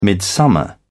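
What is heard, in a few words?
"midsummer", British English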